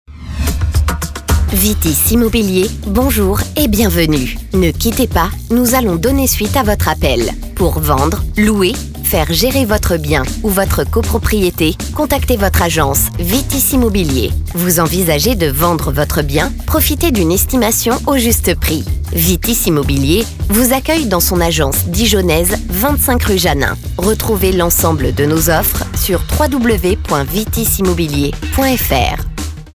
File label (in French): message d'accueil; Vitis Immobilier